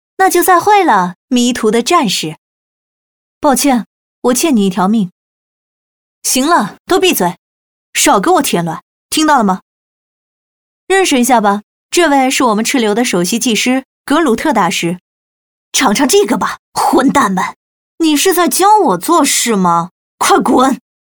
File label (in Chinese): 女 游戏角色